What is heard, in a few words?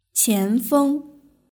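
"qianfeng" Mandarin Chinese; world cup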